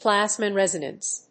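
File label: "plasmon resonance", English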